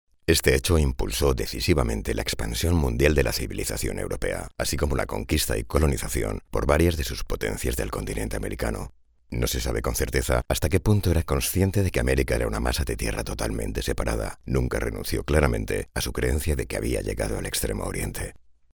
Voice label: locutor spanish voiceover

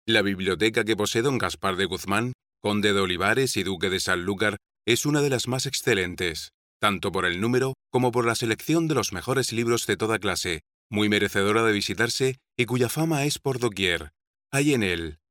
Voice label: locutor spanish voiceover

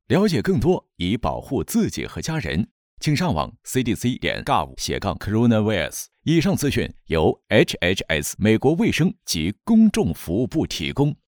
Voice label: locutor chino chinese voiceover

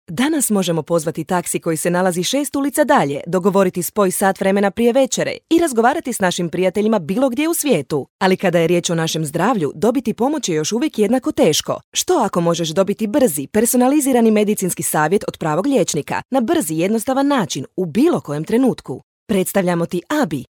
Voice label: locutora croata croatian voiceover

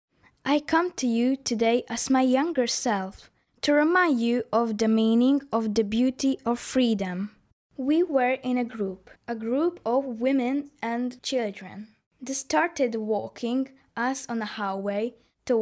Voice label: hungarian child voiceover english locutor hungria; Hungarian child voice over. English recording

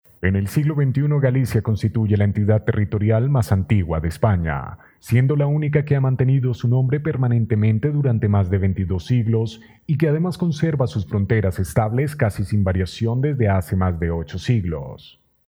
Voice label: locutor colombiano colombian voiceover

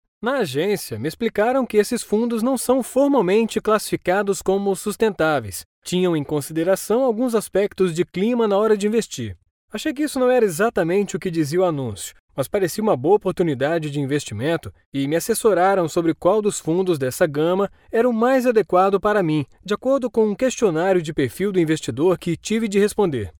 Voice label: locutor brasil brazilian voiceover; locutor de Brasil; locutor brasileño

